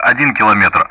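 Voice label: Speech Sound Russian Common Player; DCS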